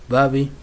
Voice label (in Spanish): manantial, Pima, Yécora, Sonora; INALI